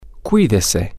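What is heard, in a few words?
take care, Spanish, male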